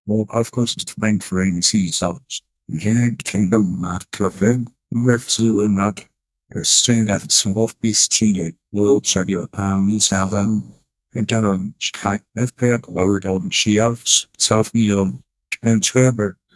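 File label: a man reading movie script; media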